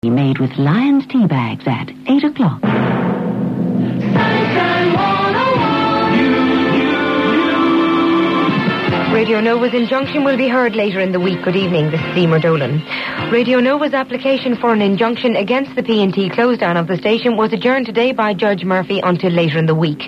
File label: news; sunshine news 2000